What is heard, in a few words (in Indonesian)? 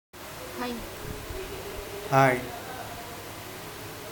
suara manusia hai; Pengusir Hama Burung Otomatis; human